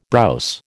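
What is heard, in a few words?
"browse", American English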